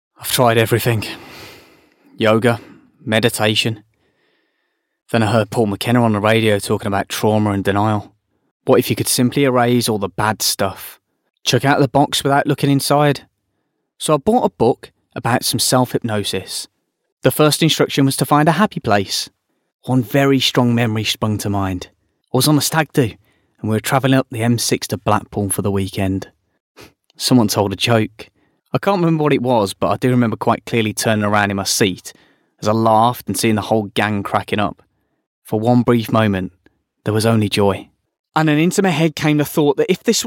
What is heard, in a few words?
natural